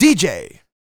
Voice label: FEM SPOKEN; ILIO; Vocal Planet VOL-4; R&B & Dance